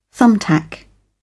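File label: "thumbtack", British English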